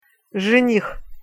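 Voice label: "zenih", Russian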